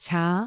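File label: "caa2", Cantonese; amazonHiuJin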